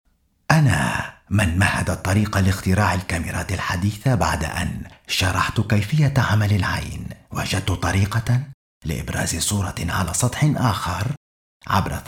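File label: arabic male narration; voice demos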